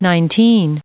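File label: "nineteen", US English, recite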